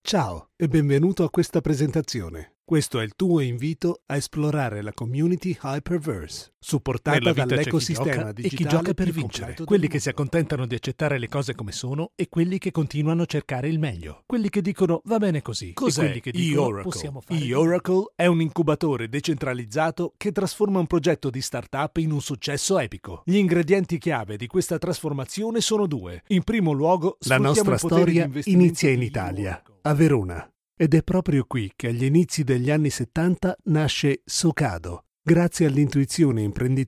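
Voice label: Italian, Corporate; GoLocalise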